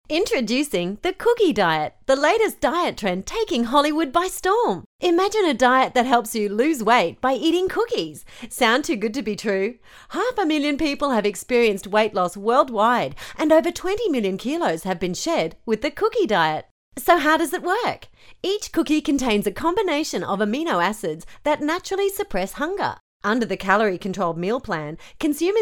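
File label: English Australian, female, voice over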